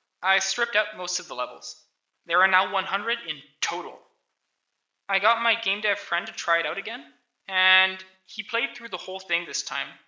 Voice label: voice, narration